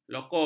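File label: "lokum", Malay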